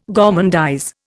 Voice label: "gormandize", Indian